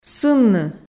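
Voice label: "сынны", Komi, female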